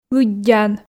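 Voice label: "лыддян", Komi, female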